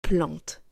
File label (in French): "Plante", French